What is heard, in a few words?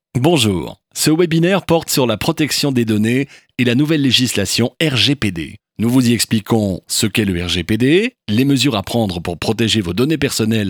elearning